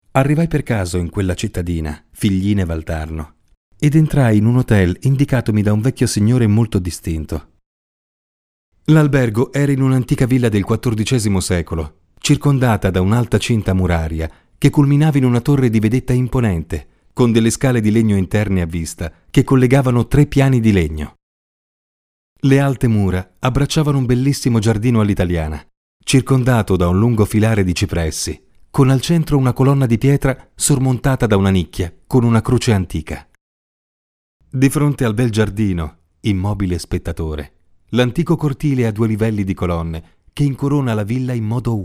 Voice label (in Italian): ITALIANO IMPOSTATa, speakers